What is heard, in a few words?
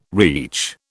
"reach", British